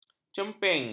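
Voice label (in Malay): "cemping", Malay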